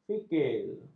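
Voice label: "fikir", Malay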